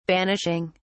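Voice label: "banishing", British English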